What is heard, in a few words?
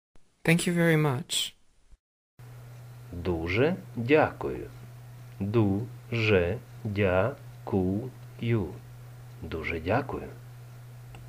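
Ukrainian Thank you very much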